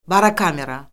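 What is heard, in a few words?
"barokamera", Azerbaijani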